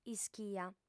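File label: "ischia", female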